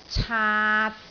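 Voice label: "caat3", Cantonese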